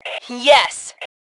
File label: Yes, Female; T2 Female 01